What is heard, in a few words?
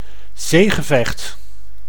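"zeegevecht", Dutch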